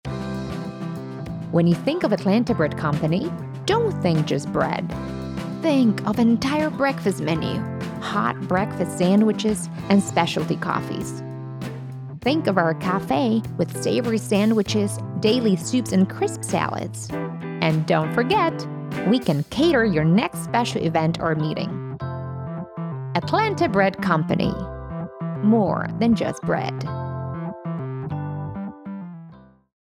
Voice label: voice over, bakery